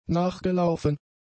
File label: "nachgelaufen", German; adjectives